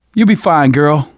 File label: revive friend, survivor voice manager; Left 4 Dead 2; left4dead2 dlc3